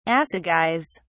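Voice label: "assegais", British English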